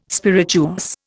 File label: "spirituous", Indian